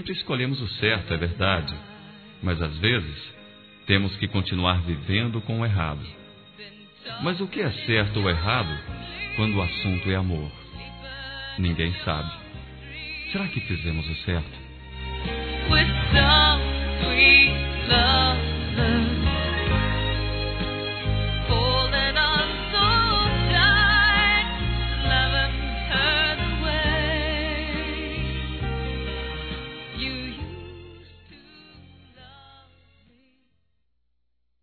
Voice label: Para Ex Masculino, Telemensagens

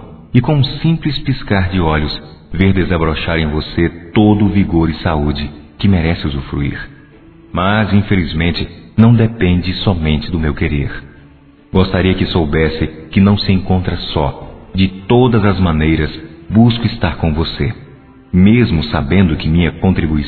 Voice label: Desejo de Melhoras Masculino; Telemensagens; Otimismo

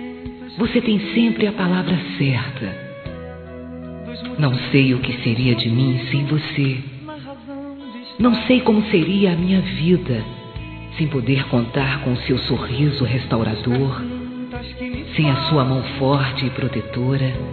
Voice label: Quando Preciso Falar F; Telemensagens; Sensuais; fem

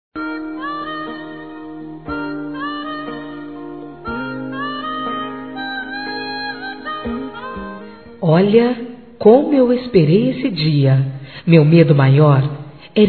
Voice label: ANIV. GERAL F; Dia Dos namorados; fem; Telemensagens 2017

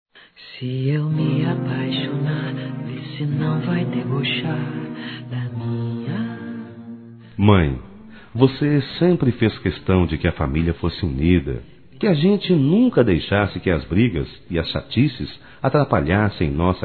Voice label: FILHOS P MÃE DUAS VOZES, Telemensagens, Dia das Mães; Telemensagens 2017

